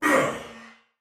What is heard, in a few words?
Attack Normal Soldier Male, Battle; Dialog; Barks; Sinecure